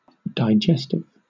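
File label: "digestive", British English; pronunciations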